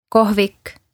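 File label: "Kohvik", Estonian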